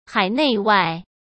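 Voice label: "海内外", Mandarin Chinese; chinese-flashcards; hsk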